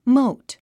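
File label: "moat", English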